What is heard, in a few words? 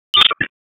Police Northwest District Tac 1; Raleigh; wake p25